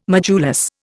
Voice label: "modulus", Indian

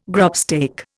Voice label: "grubstake", Indian

